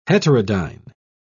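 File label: "heterodyne", English, British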